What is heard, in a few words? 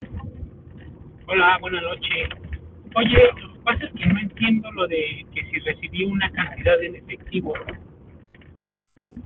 whatsapp ptt